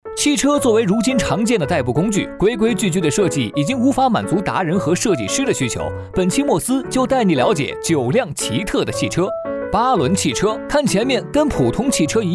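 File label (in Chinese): 男【抖音解说】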